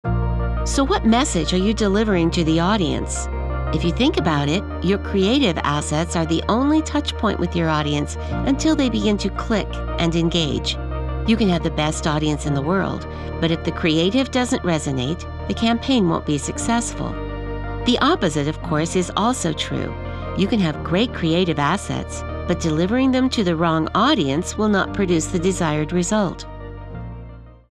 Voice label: Spot Advertising